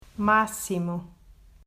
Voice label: "Maximo", Portuguese